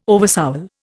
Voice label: "oversoul", Indian